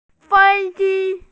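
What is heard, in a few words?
"fei1ji1", Mandarin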